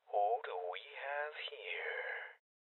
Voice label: Voices Enemy Spotted Male Young; rebel cops 2019 gamerip